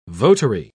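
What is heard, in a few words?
"votary", British English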